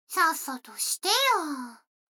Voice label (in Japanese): 女の子台詞, ASMR